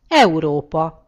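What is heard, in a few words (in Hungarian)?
"europe", Hungarian; gcompris; geography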